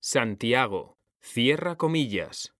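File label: "Santiago cerrar comillas", Spanish, male; mekanta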